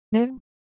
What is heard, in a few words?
Japanese, Ideo Phones